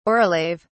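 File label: "aurilave", British English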